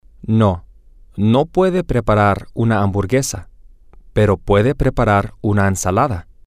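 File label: Spanish, male; no she can't prepare a hamburger but sh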